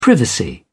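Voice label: "privacy", British English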